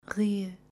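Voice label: "Rir" Guttural, Portuguese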